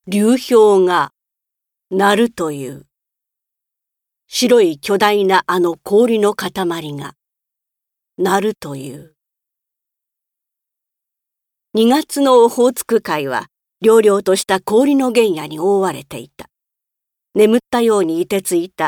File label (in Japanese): 朗読